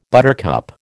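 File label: "buttercup", American English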